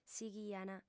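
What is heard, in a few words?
"sighiant", female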